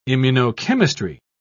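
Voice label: "immunochemistry", British English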